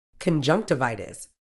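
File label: "conjunctivitis", British English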